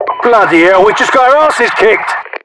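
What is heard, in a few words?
British mission fail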